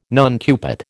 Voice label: "nuncupate", American

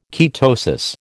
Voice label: "ketosis", American

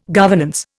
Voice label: governance, Indian

